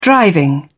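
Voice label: "driving", British English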